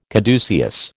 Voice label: "caduceus", American

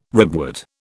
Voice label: "ribwort", British

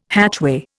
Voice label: "hatchway", Indian

